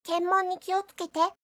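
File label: "kenmon", Japanese; 検問に気をつけて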